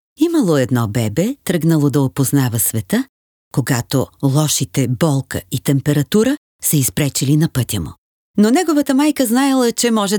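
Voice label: Bulgarian narration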